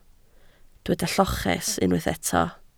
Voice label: "lloches", Welsh